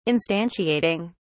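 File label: "instantiating", British English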